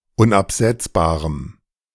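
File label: "unabsetzbarem", German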